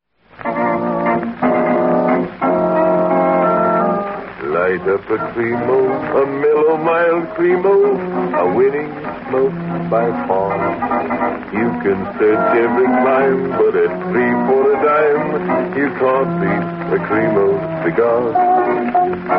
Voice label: vintage commercials cigars; creamo

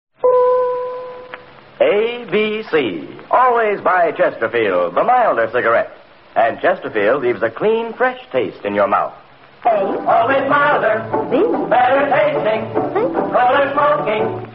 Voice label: vintage commercials cigarettes; chesterfield

